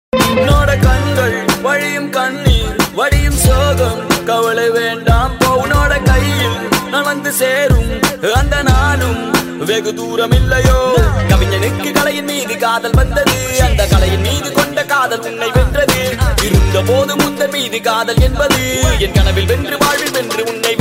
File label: Telugu Rap Ringtone